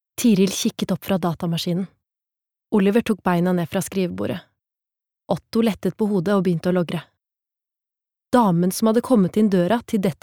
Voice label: Norwegian barnebok, female

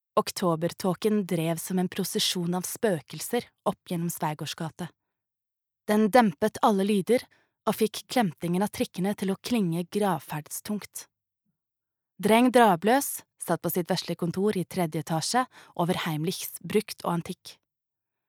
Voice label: no lydbok

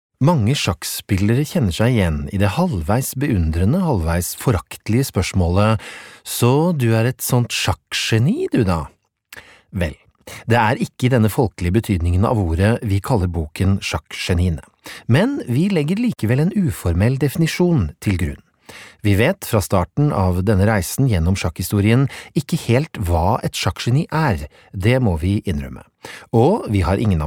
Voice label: no lydbok

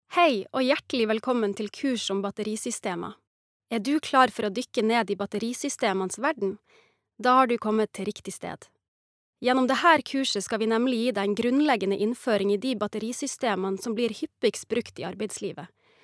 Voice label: e-laering, norsk, kvinne

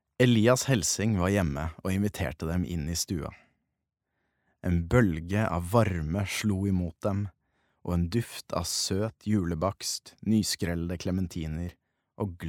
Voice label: barnebok, norsk, mann

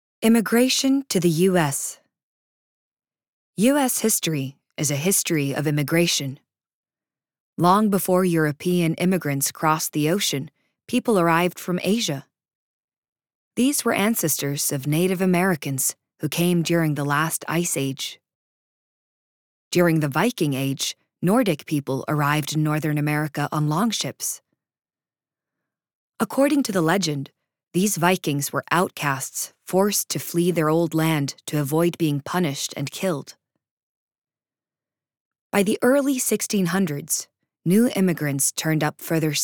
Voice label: us fagbok; engelsk-us; kvinne

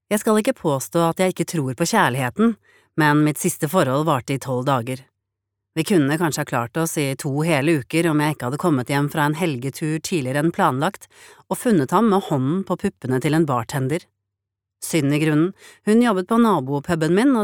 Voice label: no lydbok

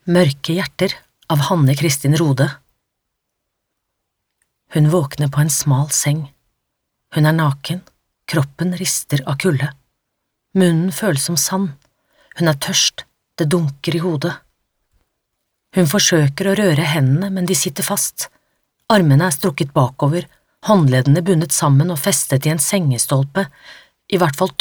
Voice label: no lydbok

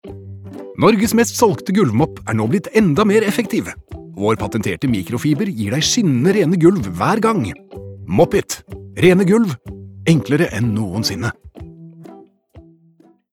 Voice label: no reklame

